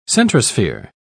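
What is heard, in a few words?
"centrosphere", British English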